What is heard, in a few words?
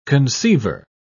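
"conceiver", British English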